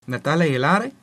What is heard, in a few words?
christmas, latin